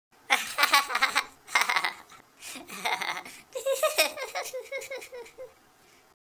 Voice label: happy; Worms3D; Whoopsie